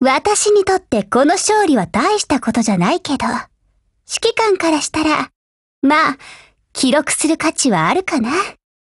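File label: WIN Japanese, Voice; GF Alarm; FNFAL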